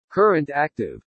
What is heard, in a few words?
"current active", english